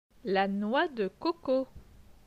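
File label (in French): "La noix de coco", French; vocabulaire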